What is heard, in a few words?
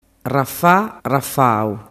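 "rafarafau", Sicilian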